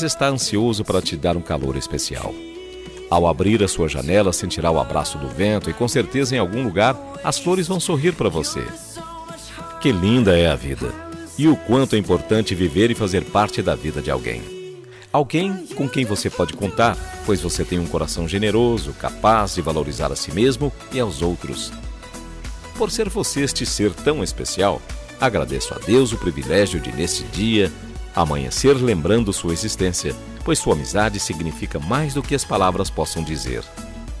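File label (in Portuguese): aniversario cunhado masculino, telemensagem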